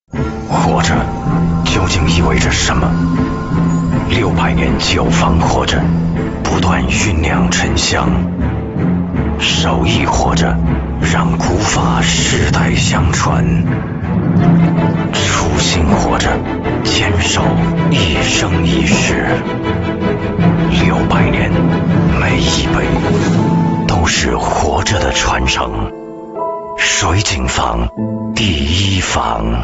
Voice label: 男 形象宣传片; 水井坊

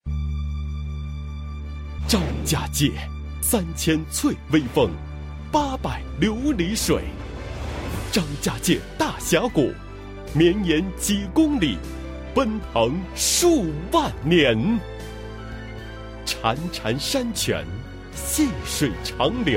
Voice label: 男, 国语男声配音; 张家界福建推介会系列-大峡谷

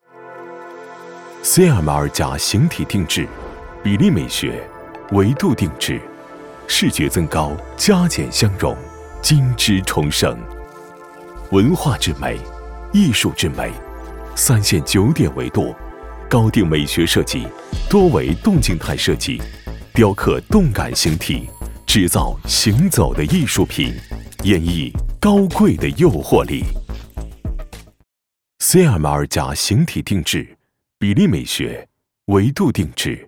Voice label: 男 宣传 时尚洋气; 形体定制

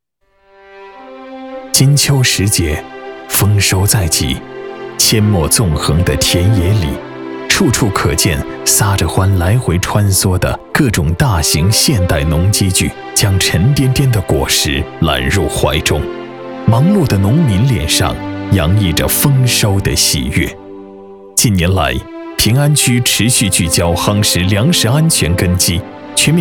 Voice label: 男 纪录片; 托管服务惠民生; 乡村振兴谱新篇

